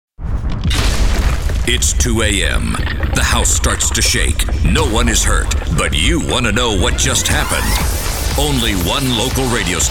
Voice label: News Imaging; Radio/TV Promos